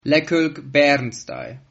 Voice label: birthday, Sylt Frisian

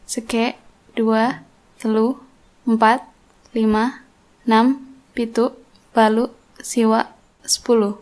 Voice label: numbers sasak

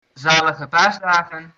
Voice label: happy easter, dutch

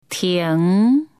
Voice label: stop taiwanese

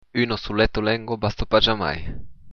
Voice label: one language, occitan

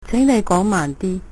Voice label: slowly, Cantonese

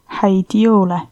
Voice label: christmas, estonian

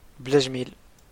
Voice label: you're welcome, arabic

